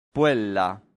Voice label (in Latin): "puella", latin; santillana